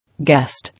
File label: "Guest", English